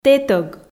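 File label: "тэтӧг", Komi, female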